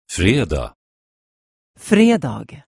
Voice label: "fred", Swedish